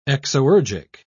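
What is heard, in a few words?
"exoergic", British English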